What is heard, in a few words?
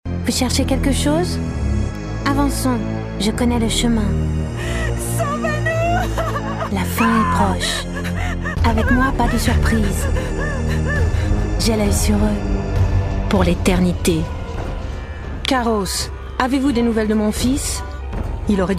video games french